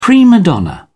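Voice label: "prima donna", British English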